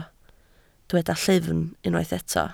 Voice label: "llyfn", Welsh